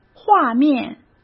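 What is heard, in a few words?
"画面", Mandarin Chinese; chinese-flashcards; hsk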